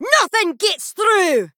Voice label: Female Dwarf Attack

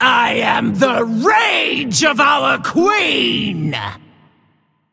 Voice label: Female Elemental Play

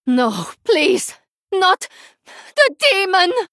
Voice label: Female Draenei Death; Shadow Priest Xyrella

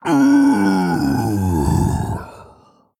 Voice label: Male Tauren Death

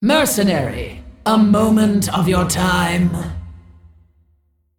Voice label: Female Dragon Bark; Onyxia

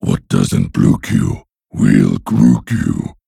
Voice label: Male Hozen Play

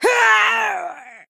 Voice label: Female Dwarf Death

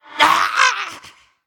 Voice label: Kobold Skeleton Emote Death

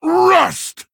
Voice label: Male Orc Attack

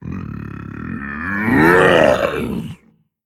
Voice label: Male Dragon Evil Fight Hero Attack

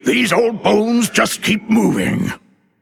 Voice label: Male Undead Play

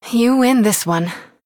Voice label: Female Human Concede

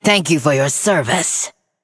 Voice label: Female Forsaken; Kurtrus

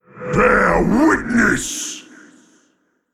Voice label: Male Attack; Eternal One

